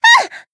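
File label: Voice damaged, Japanese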